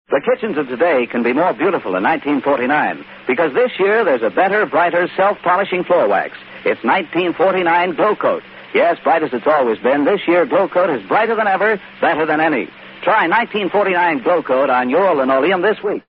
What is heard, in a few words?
vintage commercials glocoat wax